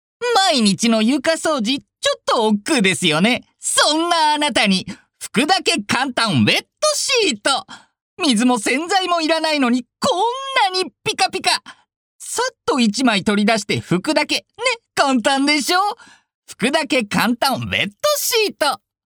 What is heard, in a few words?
narration